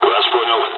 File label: dialogs russian; War Thunder